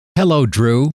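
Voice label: hello, male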